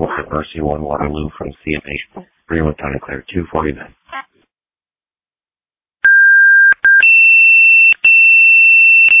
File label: Ambulance, dispatch; Sumner